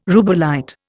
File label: "rubellite", Indian